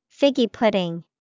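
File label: figgy pudding, English vocabulary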